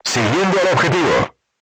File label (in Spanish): voice message target lock, Spanish